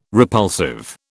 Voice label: "repulsive", British